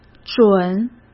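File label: "准", Mandarin Chinese; chinese flashcards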